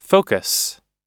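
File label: "focus", English; oxford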